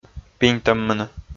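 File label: "пиньтӧммыны", Komi, male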